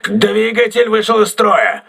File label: engine damaged, crew ships russian